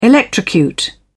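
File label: "electrocute", British English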